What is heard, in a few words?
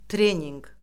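"treninq", Azerbaijani; Orfoqrafiya Lüğəti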